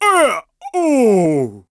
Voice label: Shocked Ohhh; Gondo; Skyward Sword